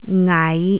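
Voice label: "ngai5", Cantonese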